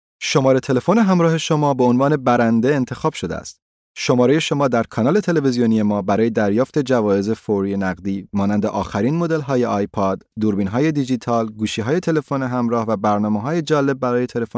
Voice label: Nachvertonung Film Sprecher Persisch

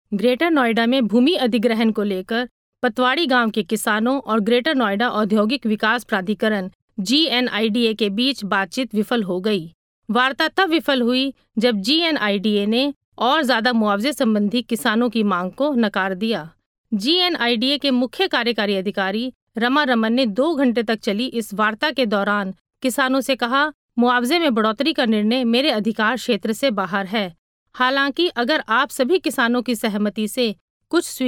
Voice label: Nachvertonung Film Sprecherin Hindi

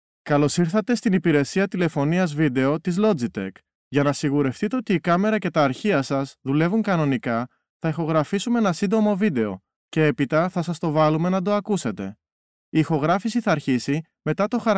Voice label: Nachvertonung Film Sprecher Griechisch

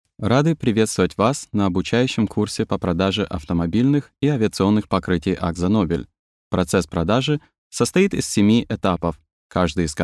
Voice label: Nachvertonung Film Sprecher Russisch

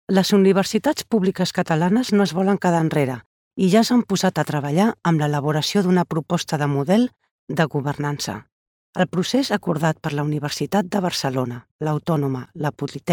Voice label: Nachvertonung Film Sprecherin Katalanisch

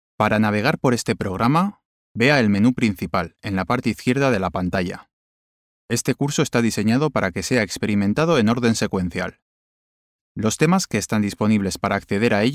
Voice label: Nachvertonung Film Sprecher Spanisch

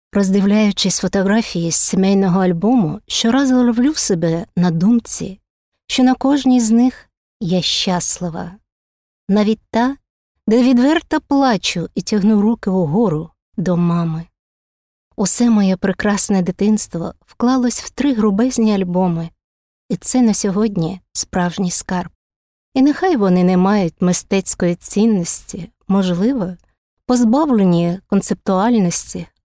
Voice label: Film Sprecherin Ukrainisch; Nachvertonung Film

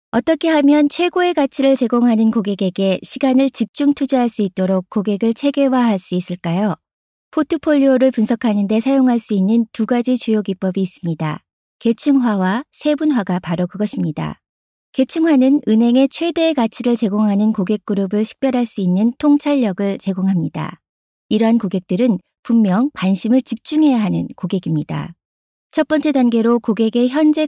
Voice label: Nachvertonung Film Sprecherin Koreanisch

